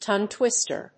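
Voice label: "tongue twister", English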